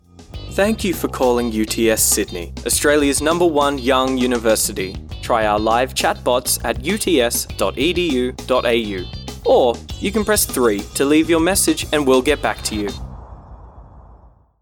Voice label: ON HOLD